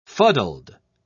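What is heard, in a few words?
"fuddled", British English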